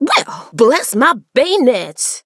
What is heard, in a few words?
hurt; juju